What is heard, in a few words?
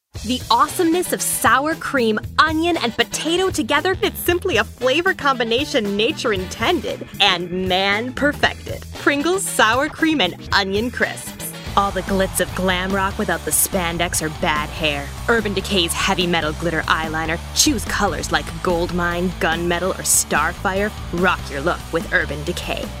commercial